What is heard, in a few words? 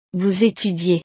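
"vous etudiez", French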